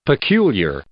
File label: "peculiar" adjective; English